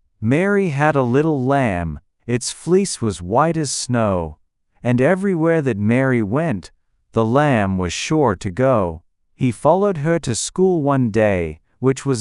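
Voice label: male Australian, classic rhymes; Mary Had a Little Lamb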